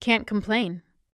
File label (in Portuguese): "cant complain", voz grossa; estou bem em inglês